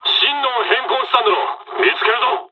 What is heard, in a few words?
dialog, dialogs japanese; us midway; War Thunder